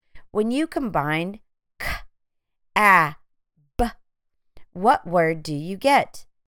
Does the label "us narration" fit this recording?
No